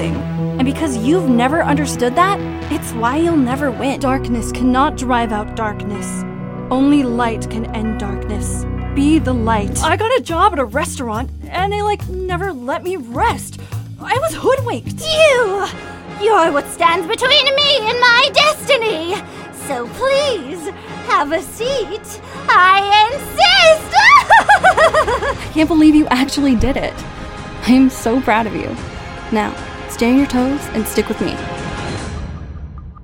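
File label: animation voice over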